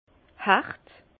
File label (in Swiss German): "hert", Bas Rhin; vin; âpre